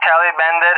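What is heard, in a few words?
"tally bandit", English speech; DCS; Allied Flight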